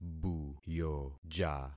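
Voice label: "buyoja", concatenated syllables; Affixing experiment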